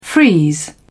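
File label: "freeze", British English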